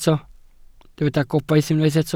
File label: "gobaith", Welsh